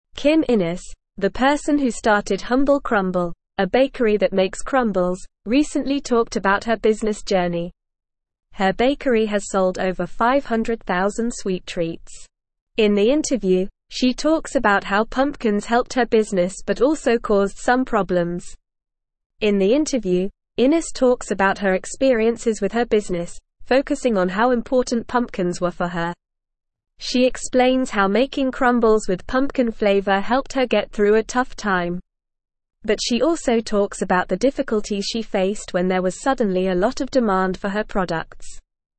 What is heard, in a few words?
English Upper Intermediate NORMAL Reading; Pumpkins and Persistence A Bakery Founders Busine; English Newsroom